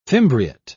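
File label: "fimbriate", British English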